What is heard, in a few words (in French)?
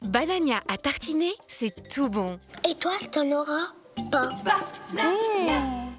PUB TV a tartiner; voix-off; BANANIA